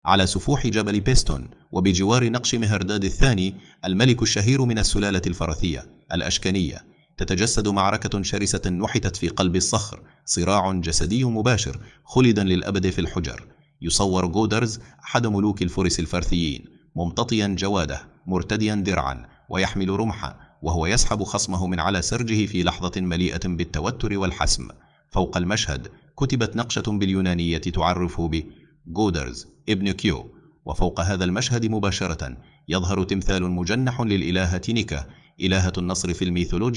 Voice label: arabic; bas relief of gotarzes; godarz